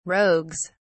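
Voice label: "rogues", British English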